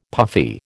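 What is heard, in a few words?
"puffy", American English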